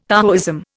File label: "Taoism", Indian